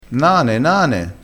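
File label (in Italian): "nane nane", dialettale crespinese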